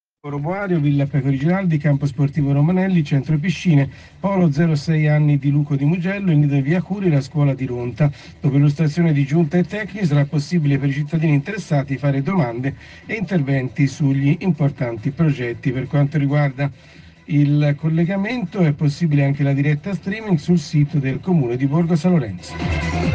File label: radio; sindaco e speaker; Borgo San Lorenzo